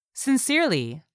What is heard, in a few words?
"SINCERELY", english; 3000 words